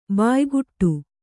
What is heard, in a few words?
"ಬಾಯ್ಗೂಡು", Kannada